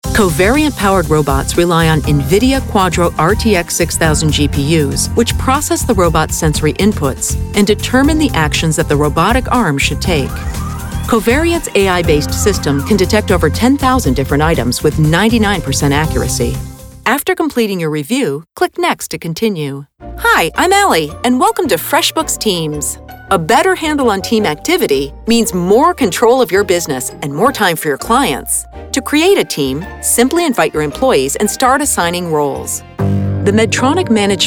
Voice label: eLearning